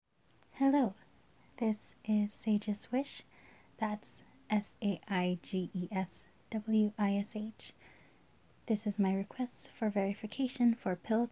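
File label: Female Verification Request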